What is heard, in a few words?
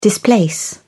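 "displace", English, British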